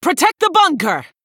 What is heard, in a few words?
female human, Bark